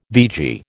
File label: "vegie", American